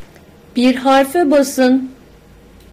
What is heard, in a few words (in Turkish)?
click on letter, tr; gcompris-data